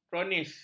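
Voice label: "kronis", Malay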